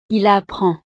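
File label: "il apprend", French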